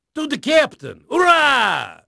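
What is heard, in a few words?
Portuguese male, voice; Pirates Of The Caribbean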